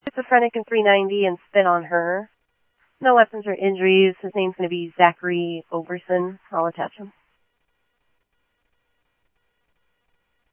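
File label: Mesa Simulcast Police Department; MESA PD Superstn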